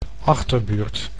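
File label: "achterbuurt", Dutch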